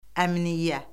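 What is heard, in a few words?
"əmniyyə", Azerbaijani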